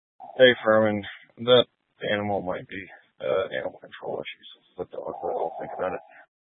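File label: Sheriff Dispatch, Scanner audio recording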